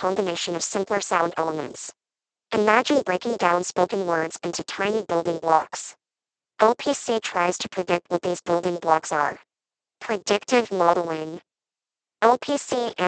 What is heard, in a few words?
high voice; speex